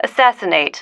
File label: "assassinate", female